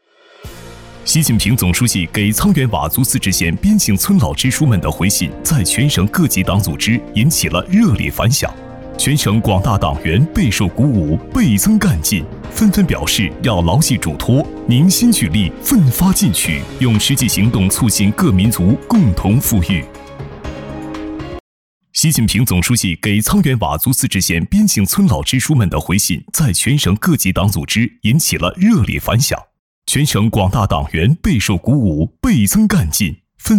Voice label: 男 专题 新闻

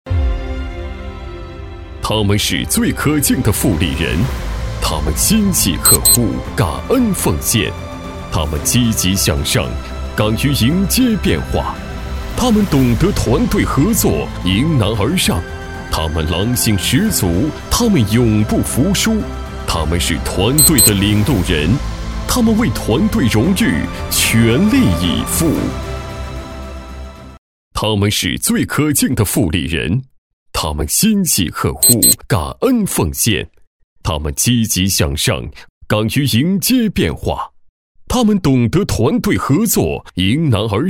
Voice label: 男 专题歌颂类型

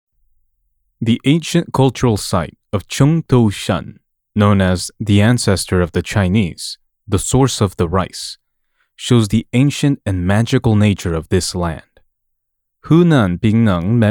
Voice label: 外籍英男 医疗器械介绍 干音

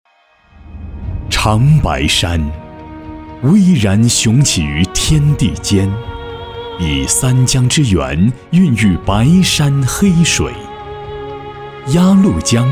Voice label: 男 专题

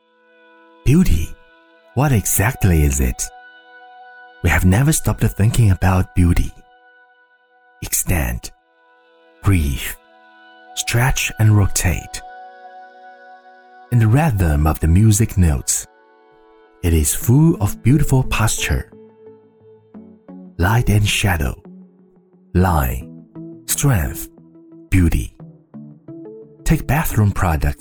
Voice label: 男 英文宣传片

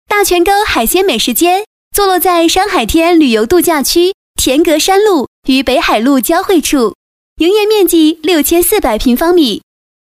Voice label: 女 景区介绍

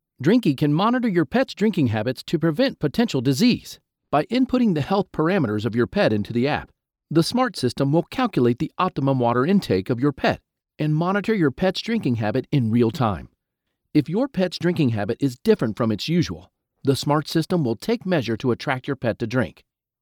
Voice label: 轻快 宠物自动饮水机介绍

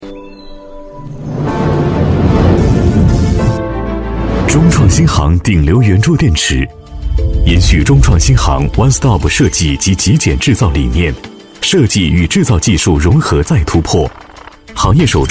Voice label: 男 宣传片 科技感; 中创

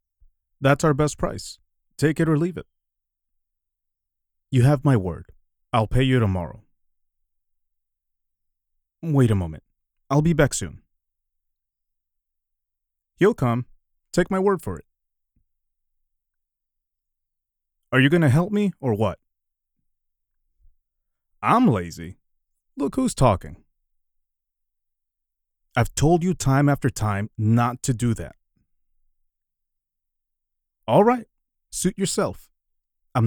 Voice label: 展会宣传

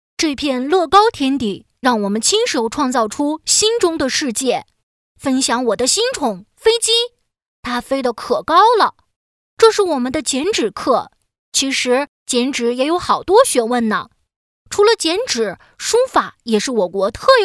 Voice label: 女 童声 男童 学校宣传片; 新世纪学校宣传片

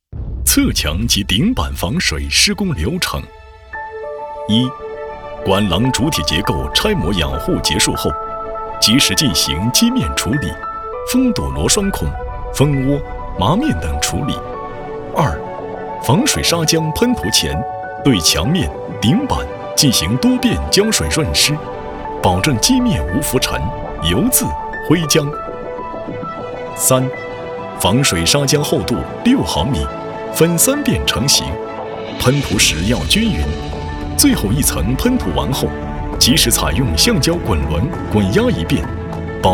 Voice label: 男 工程汇报类 地产工程

